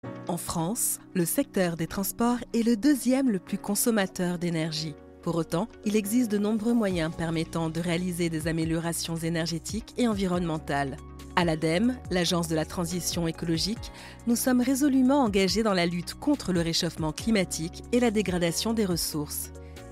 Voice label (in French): Motion Design, Voix-off féminine pro